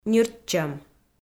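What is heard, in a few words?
"ниртчӧм", Komi-Zyrian, female; kpv audio dict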